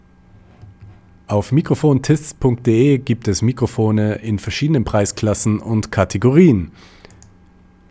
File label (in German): mikrofon test yeti blue popschutz screen